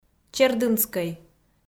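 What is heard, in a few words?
"чердынскӧй", Komi-Zyrian, female; kpv audio dict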